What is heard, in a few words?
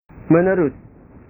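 "menerus", Indonesian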